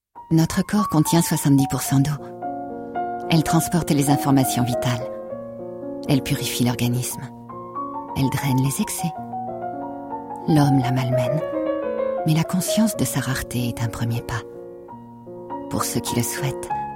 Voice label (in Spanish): locutora francesa french voice over; locutores franceses